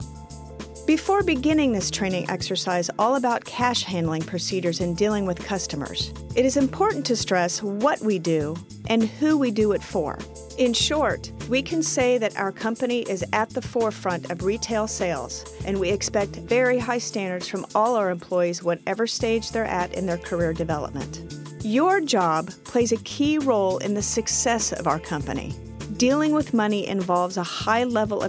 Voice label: E Learning